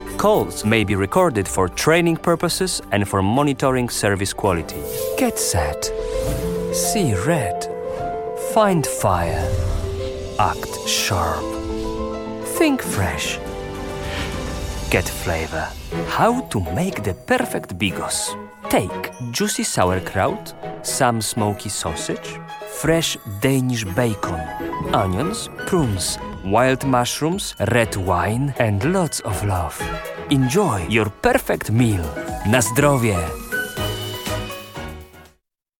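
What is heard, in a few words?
Polish, accented English; GoLocalise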